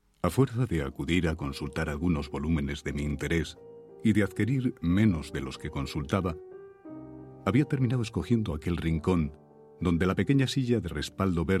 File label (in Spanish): Audiolibro; De las tres veces que subi a los cielos